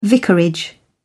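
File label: "vicarage", British English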